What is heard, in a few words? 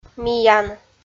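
"миян" Komi, female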